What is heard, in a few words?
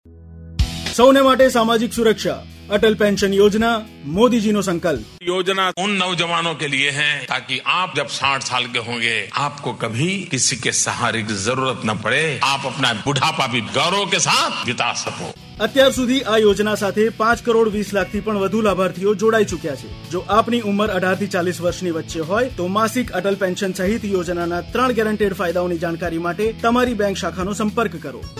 Atal Pension Yojana Gujarati